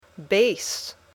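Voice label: "base", English; Sports/Hobbies (Deportes/Pasatiempos)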